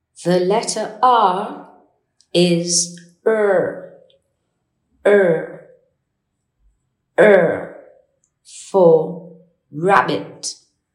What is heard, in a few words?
r sound